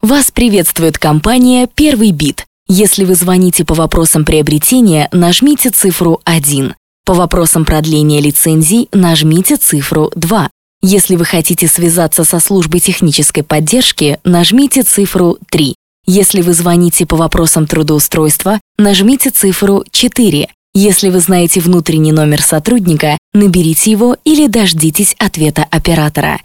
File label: IVR